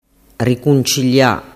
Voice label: "ricuncilia", dialettu